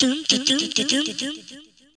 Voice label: VOX, Grunge Hip Hop; USB Soundscan vol.34; AKAI; TIMBA